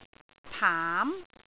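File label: "taam2", Cantonese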